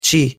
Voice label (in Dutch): "te" Maltees; thee-in-andere-talen